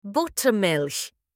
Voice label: buttermilk in german

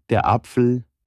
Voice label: apple in german

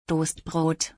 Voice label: Toast in German

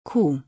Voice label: The letter Q in German

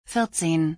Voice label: Fourteen in German

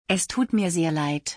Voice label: Im so sorry in German; تسلیت گفتن به آلمانی

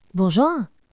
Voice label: good morning in french

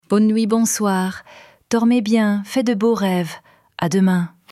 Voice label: goodnight in french

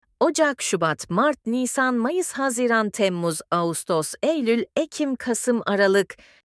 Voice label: months in turkish

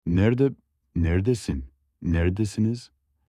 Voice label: where are you in turkish

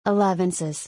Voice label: "Elevenses", English; meals in english

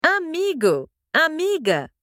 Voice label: friend in spanish

